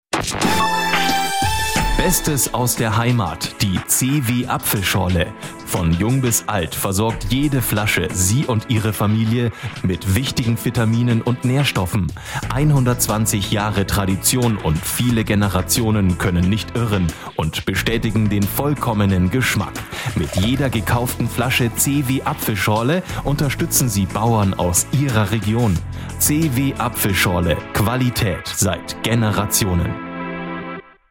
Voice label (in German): rundfunkspot